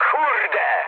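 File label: trick mood med, speech Polish; War Thunder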